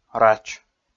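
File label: "рач", Komi, male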